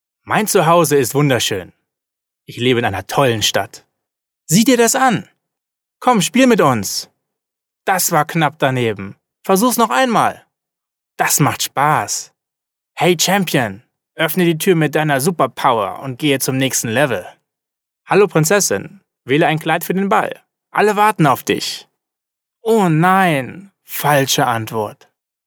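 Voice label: German male children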